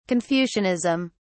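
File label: "confucianism", British English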